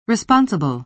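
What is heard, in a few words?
"responsible", American English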